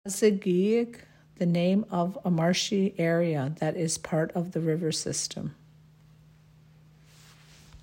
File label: English translation; Ossekeag; hampton community centre